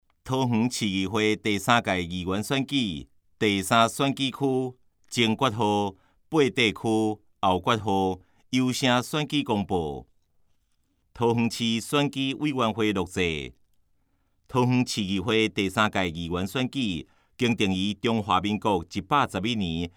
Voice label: 前言, 台語; 桃園市第3屆議員選舉第3選舉區(八德區); 市議員; 有聲公報

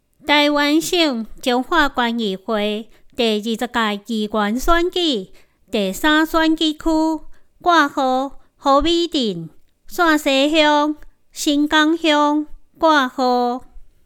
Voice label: 前言 國語; 彰化縣; 有聲公報; 縣議員; 臺語 第三選舉區

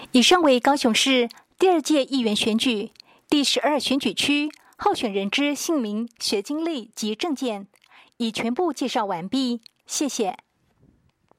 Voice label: 國語, 有聲選舉公報; 高雄市; 高雄市市長議員有聲選舉公報; 第十二選區

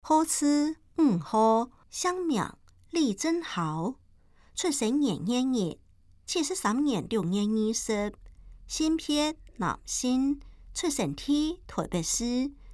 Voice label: 客語; 新北市; 有聲公報; 市議員; 第6選區

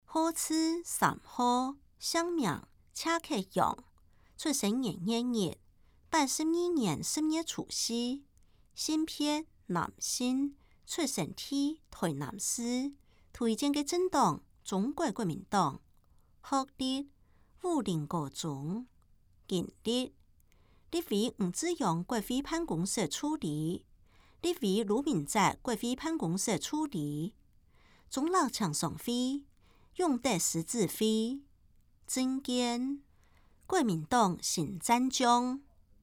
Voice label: 客語; 有聲公報; 市議員

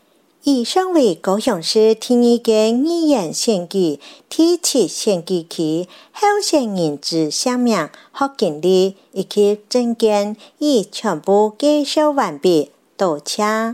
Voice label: 客語; 高雄市; 高雄市市長議員有聲選舉公報; 第七選區

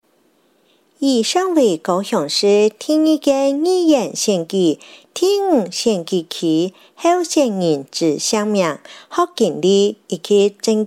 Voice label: 客語; 第五選區

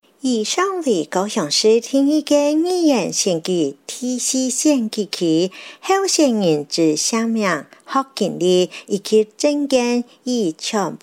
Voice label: 客語; 第四選區

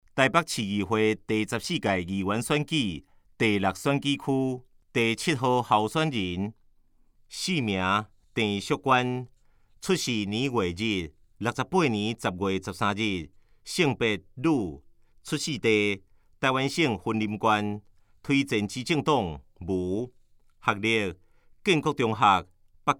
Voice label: 台語; 臺北市; 有聲公報; 市議員; 臺北市議會第14屆議員第6選舉區 (大安、文山區)候選人介紹

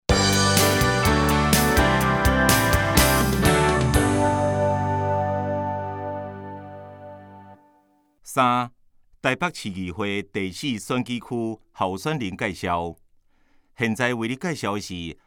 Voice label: 台語, 第4選舉區候選人介紹; 臺北市議會第14屆議員第4選舉區 (中山、大同區)候選人介紹; 有聲公報

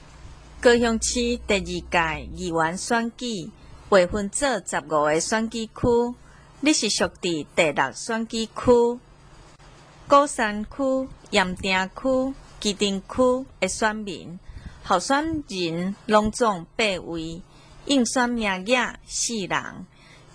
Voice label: 台語, 前言, 有聲選舉公報; 第六選區

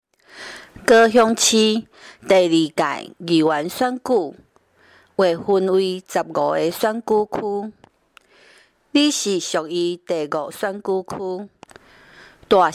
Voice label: 台語, 前言, 有聲選舉公報; 第五選區

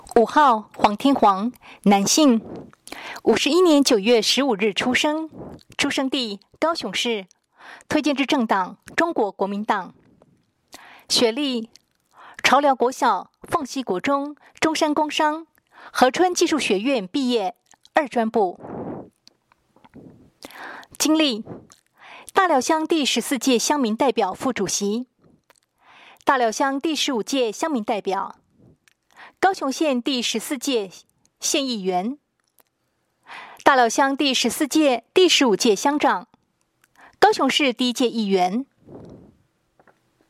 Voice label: 國語, 有聲選舉公報; 高雄市; 高雄市市長議員有聲選舉公報; 第十一選區

